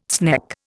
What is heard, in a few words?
"sneck", Indian